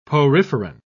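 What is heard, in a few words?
"poriferan", British English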